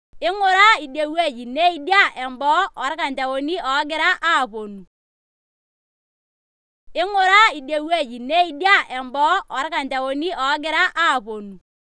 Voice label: Maasai Female